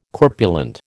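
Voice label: "corpulent", American English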